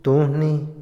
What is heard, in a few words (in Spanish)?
"pelear", Otomí, hombre; INALI